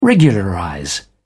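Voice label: "regularize", British English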